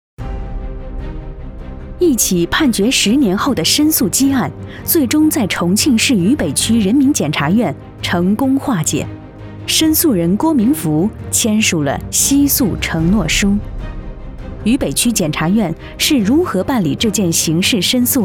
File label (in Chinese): 国语女 中正大气 检察院